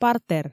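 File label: "партер", Komi, female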